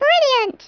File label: "brilliant", English speech